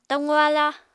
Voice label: "taqu-aláa", apatani